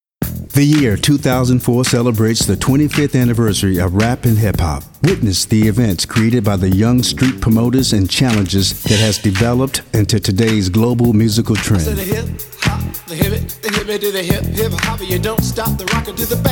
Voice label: movie trailer; VO